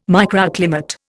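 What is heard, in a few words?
"microclimate", English, Indian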